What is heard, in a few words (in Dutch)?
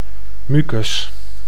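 "mucus", Dutch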